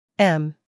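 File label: "m", American English; everyone-can-use-english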